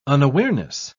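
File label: "unawareness", British English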